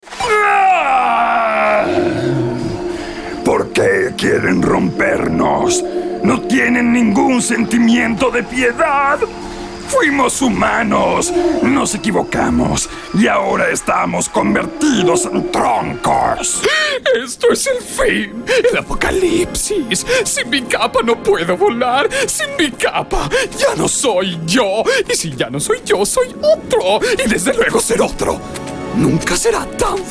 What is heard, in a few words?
Voice Actor Neutral Spanish LATAM animation